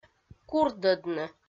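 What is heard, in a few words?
"курдӧдны", Komi, female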